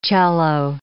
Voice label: "cello", English word